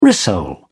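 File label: "rissole", British English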